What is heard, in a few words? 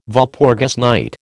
"Walpurgis night", American